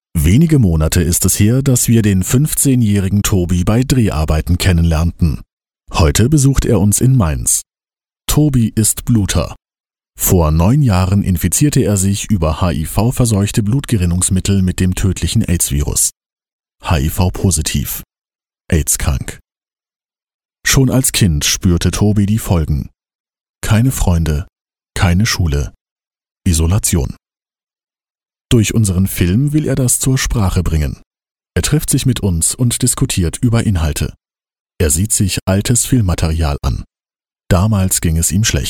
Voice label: TV-Beitrag Medizin

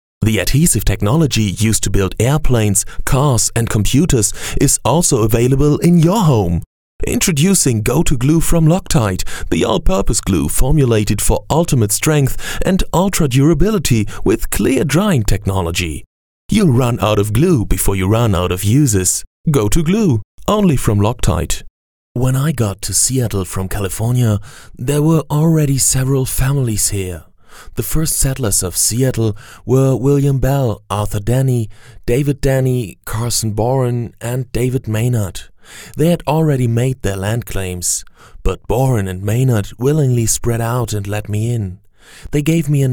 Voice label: Englisch-Mix

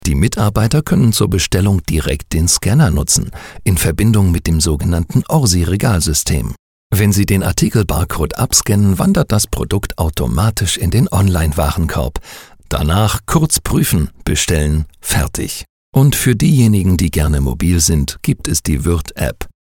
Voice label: Industriefilm B2B

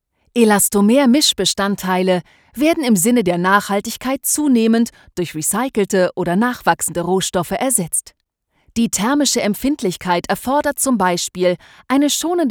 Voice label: Erkla rvideo

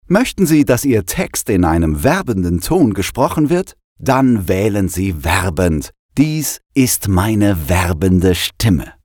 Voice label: werbend schnell

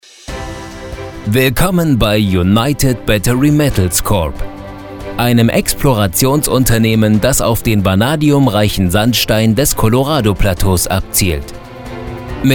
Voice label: Imagefilm stolz

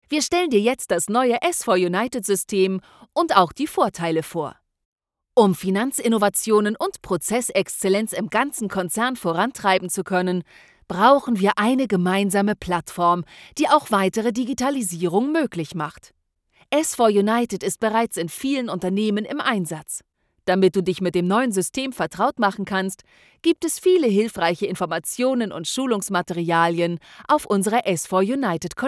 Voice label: Erkla rvideo

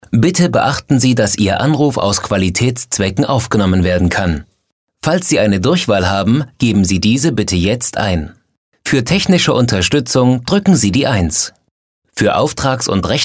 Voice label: Telefon

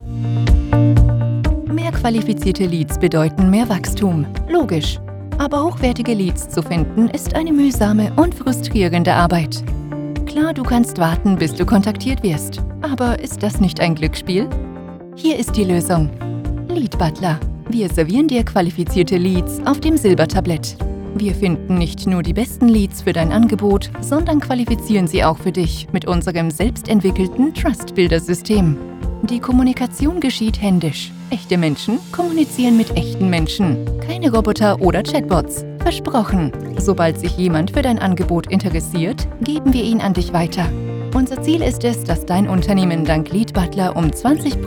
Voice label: Explainer mit Musik; VO Sample

